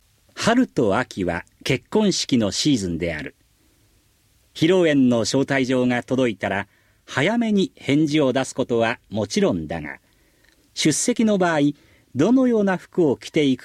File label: Conversation